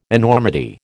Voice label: "enormity", American